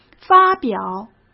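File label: "发表", Mandarin Chinese; hsk; chinese flashcards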